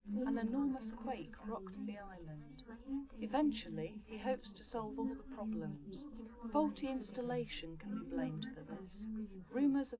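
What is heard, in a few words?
female, live recording; SiSEC11; underdetermined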